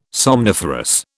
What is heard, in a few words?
"somniferous", British